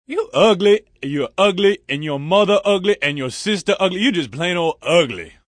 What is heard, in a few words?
You Ugly, Attitude, Male